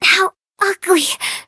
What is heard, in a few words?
hit, en; Cookie Run